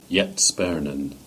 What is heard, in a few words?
"Sparnon Gate", Cornish; place names